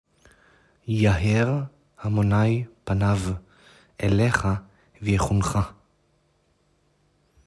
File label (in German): Gnade hebr